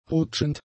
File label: "rutschend", German; adjectives